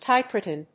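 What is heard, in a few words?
"typewritten", English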